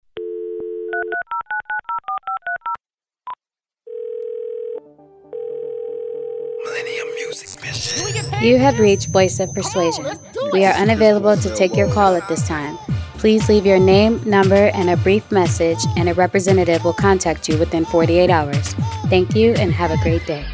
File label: Promo VO Voicemail